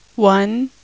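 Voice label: English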